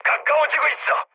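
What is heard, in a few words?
Korean near waypoint mood medium; War Thunder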